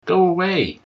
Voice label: "go away", English